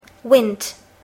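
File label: "wind", English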